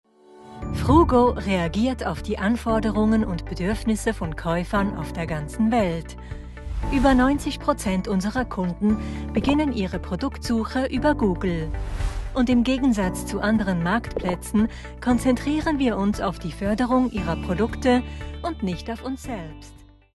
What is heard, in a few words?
german corporate videos